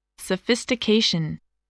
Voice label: "sophistication", English voice